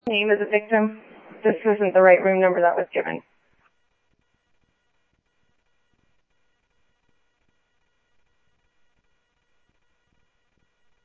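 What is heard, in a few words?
Mesa Police Department, scanner audio; Mesa Simulcast; MESA PD 1 Fiesta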